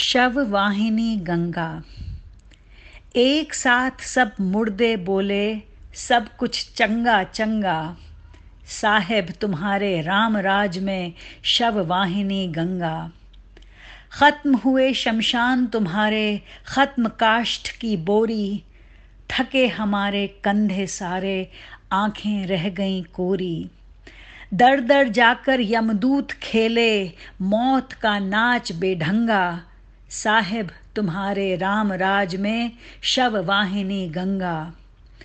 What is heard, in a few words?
Hindi Version